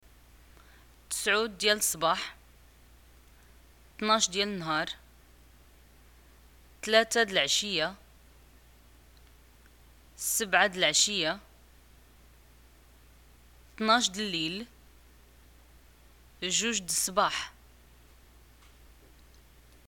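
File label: time, Moroccan arabic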